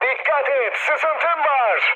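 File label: damaged water, mood med, Turkish; War Thunder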